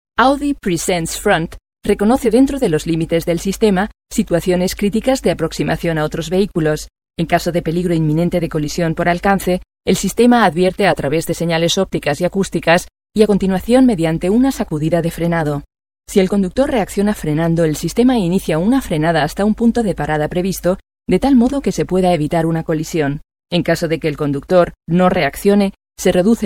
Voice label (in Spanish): es phone; Audi